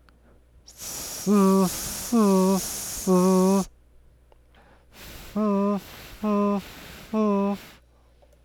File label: Fric Voice Intensity; Effect of voicing on frication; Production of voiced fricatives